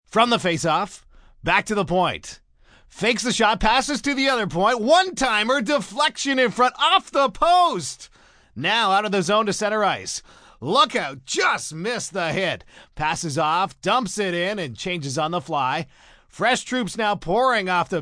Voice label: Commentary Hockey, Broadcast, male commentator, hockey, sports, play-by-play; VoiceBox